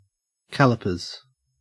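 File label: "calipers" English, Australian accent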